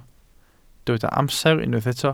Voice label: "amser", Welsh

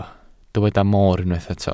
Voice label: "moor", Welsh

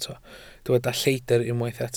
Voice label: "lleidr" Welsh

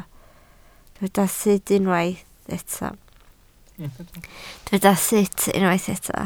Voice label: "sut", Welsh

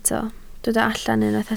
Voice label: "allan", Welsh

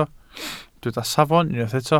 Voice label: "safon", Welsh

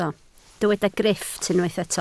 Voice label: "grifft", Welsh

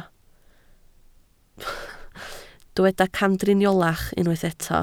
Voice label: "camdriniolach", Welsh

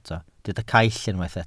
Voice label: "caill", Welsh

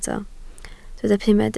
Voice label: "pumed", Welsh

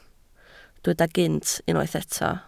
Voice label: "gynt", Welsh

